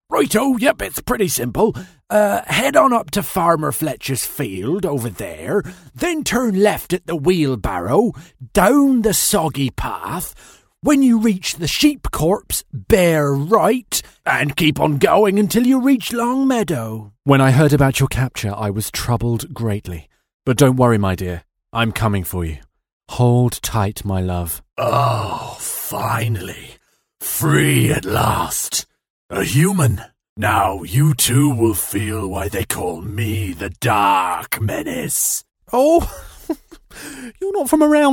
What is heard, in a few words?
Characters Animation